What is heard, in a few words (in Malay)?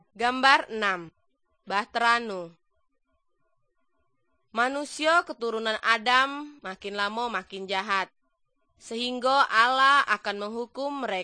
good news malay jambi